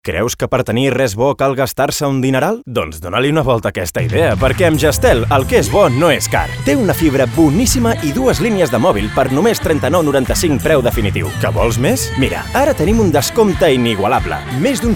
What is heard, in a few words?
Commercial Catalan; jazztel